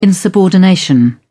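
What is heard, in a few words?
"insubordination", British English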